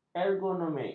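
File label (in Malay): "ergonomik", Malay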